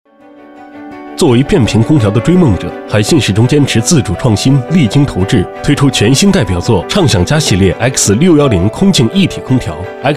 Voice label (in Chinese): 空调品牌行动宣传片; 海信空调